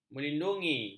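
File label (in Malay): "melindungi", Malay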